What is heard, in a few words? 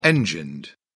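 "engined", British English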